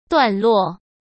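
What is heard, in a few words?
"段落", Mandarin Chinese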